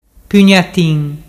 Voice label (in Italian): pugnatin, dialettu